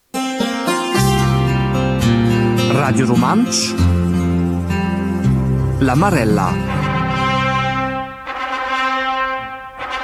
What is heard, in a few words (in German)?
raetoromanisch